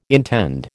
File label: "intend", American